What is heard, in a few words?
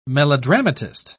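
"melodramatist", British English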